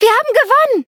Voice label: victory, de; cookie run

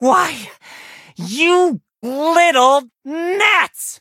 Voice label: defeat, en; Cookie Run

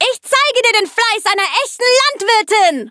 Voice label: battle, de; cookie run

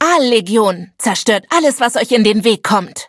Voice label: battle, de; Cookie Run

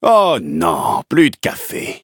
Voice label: defeat fr

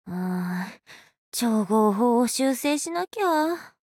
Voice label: alchemist touch, ja; Cookie Run

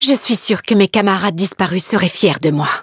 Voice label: levelup, fr; Cookie Run

